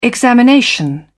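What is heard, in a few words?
"examination", British English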